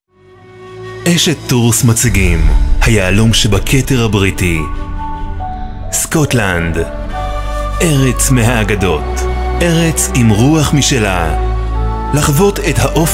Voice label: hebrew promos

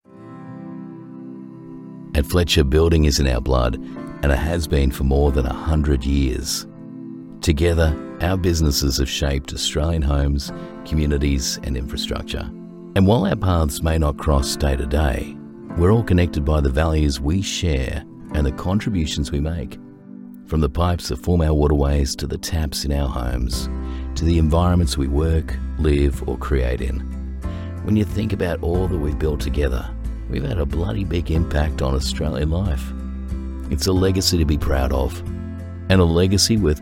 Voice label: Safety Video; Fletcher

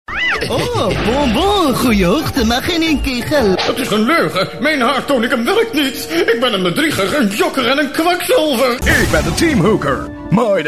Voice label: Cartoon

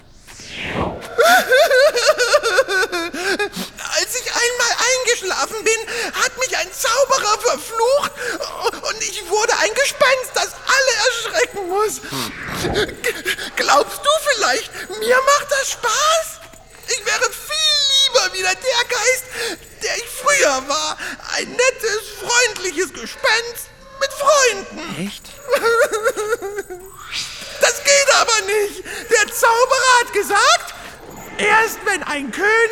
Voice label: Cartoon voice little Ghost; Die Playmos

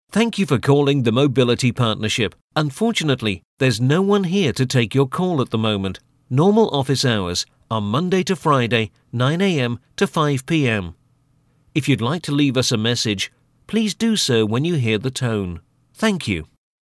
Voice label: On Hold Dry

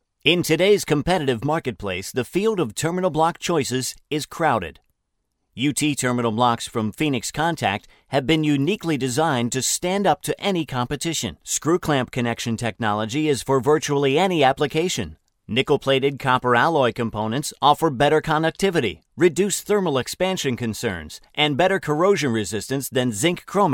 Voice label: corporate

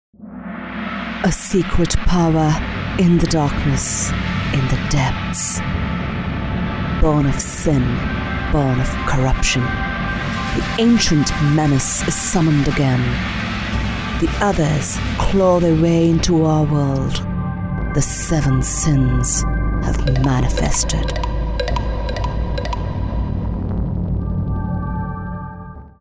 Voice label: Horror Movie Trailer UK

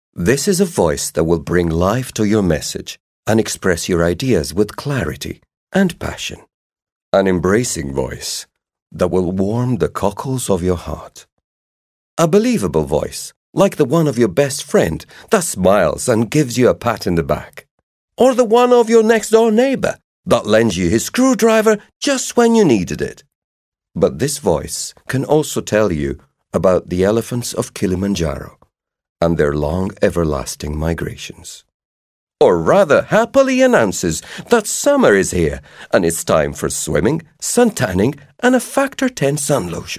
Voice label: RP Presentation

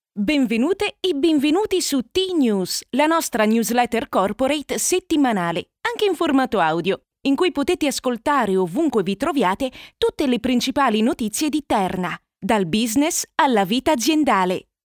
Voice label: Podcast

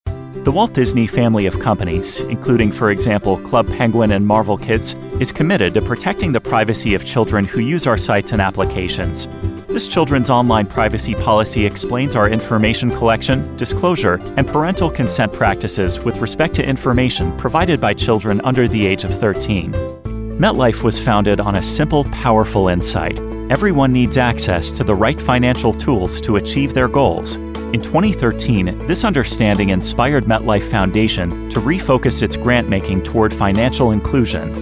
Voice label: Corporate

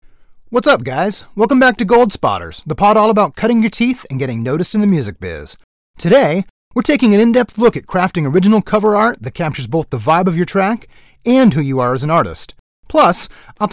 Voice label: podcast intro

